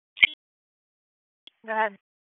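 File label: Sheriff's Office Dispatch; wake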